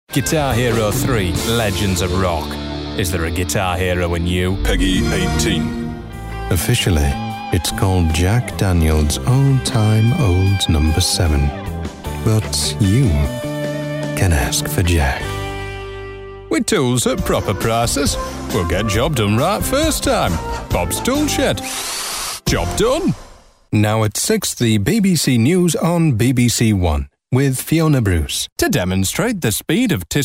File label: COMMERCIAL